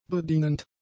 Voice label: "bedienend", German; adjectives

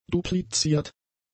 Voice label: "dupliziert", German; adjectives

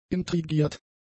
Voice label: "intrigiert", german; adjectives

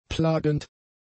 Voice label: "plagend", German; adjectives

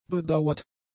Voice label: "bedauert", German; adjectives

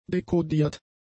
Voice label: "decodiert", german; adjectives

